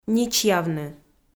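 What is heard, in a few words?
"ничьявны", Komi, female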